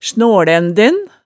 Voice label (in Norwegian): "snaalænden", hemsedalsmaalet